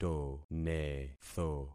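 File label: "tonetho", concatenated syllables; Affixing experiment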